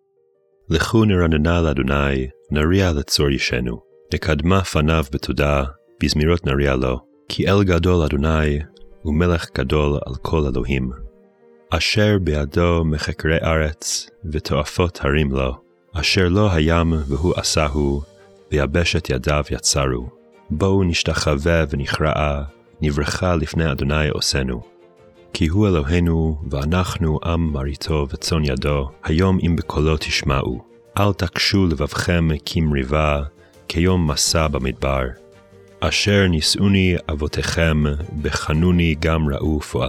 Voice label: poetry and prayer psalm hebrew; Meir Soloveichik